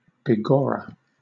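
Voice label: "begorra", British English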